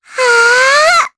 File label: Vox Casting, jp; Luna; kingsraid